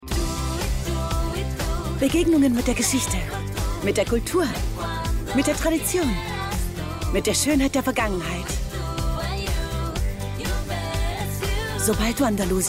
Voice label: female german tourism comercial; ideasonora

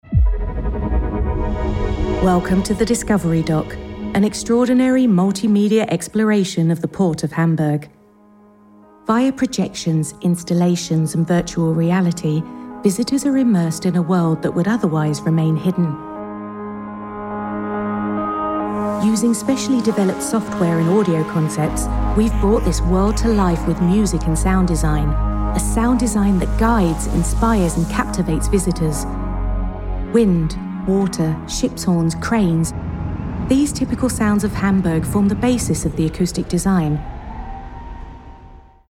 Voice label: female british english commercial

